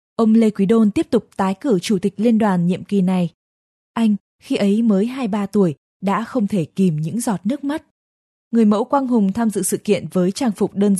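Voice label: Vietnamese Female E-learning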